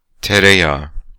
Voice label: Butter in Turkish